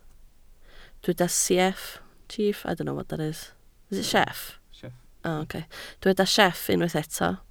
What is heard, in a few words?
"sieff", Welsh